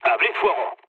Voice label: attack position, mood low, speech; War Thunder